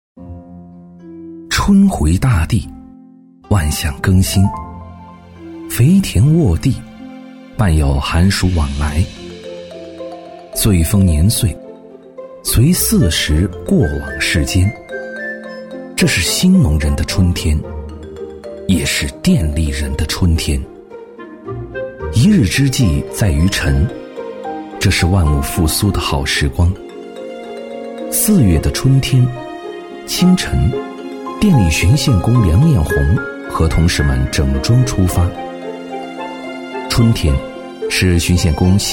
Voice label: 男 专题配音 自然讲述; 质感大气

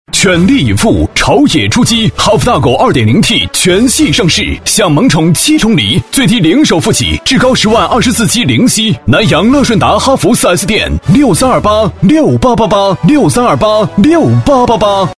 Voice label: 男 激情广告 激情厚重; 厚重有力; 大气浑厚; 哈弗汽车

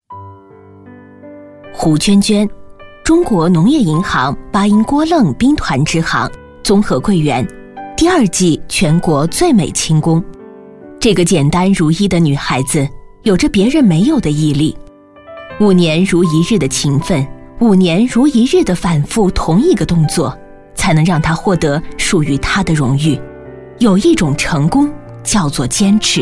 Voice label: 女 人物专题【人物介绍】; 深情感人

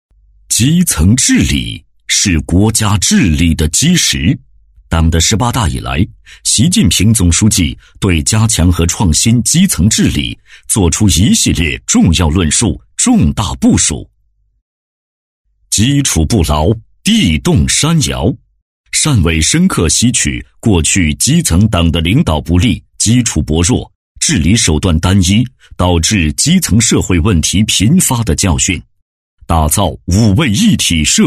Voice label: 男 党建 严肃激情; 高端厚重; 大气浑厚; 汕尾市党建引领基层治理

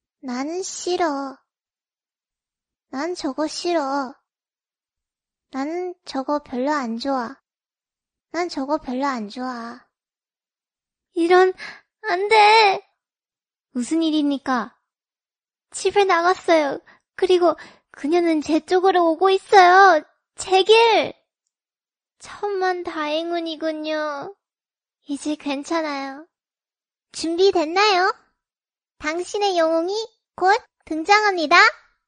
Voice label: 韩语女声

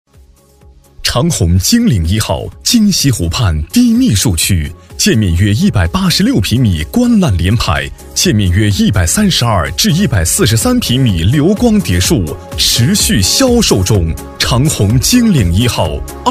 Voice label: 男 房地产广告 激情气势; 长虹金嶺1号

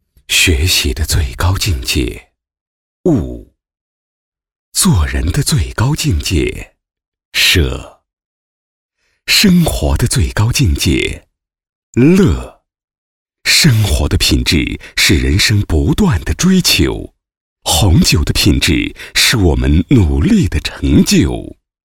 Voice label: 男 品牌广告 红酒; 成熟稳重 高端大气; 男146-品牌广告-【红酒】

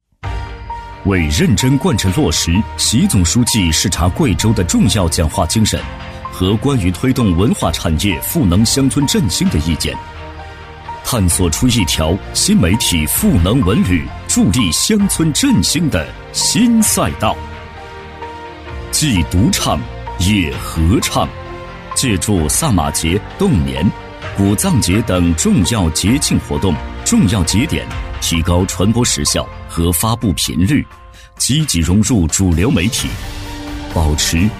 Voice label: 男 宣传片 大气磁性; 高端厚重; 大气浑厚

